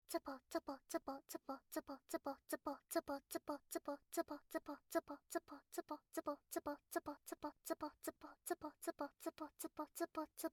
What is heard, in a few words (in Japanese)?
つぽつぽボイス, 汎用エロボイス; おまけ音声（汎用指示ボイス・汎用エロボイス）